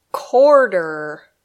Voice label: "quarter", English; = 15 minutes